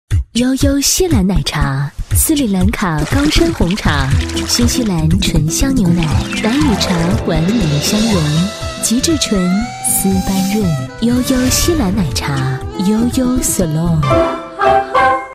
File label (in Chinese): 女4号 品牌广告, 国语女声配音